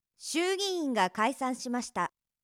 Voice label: 衆議院が解散しました, ナレーション

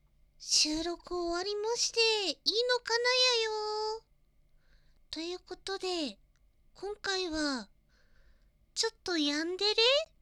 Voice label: フリートーク

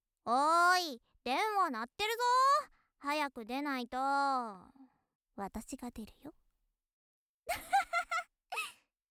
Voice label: 元気JK 電話だよ

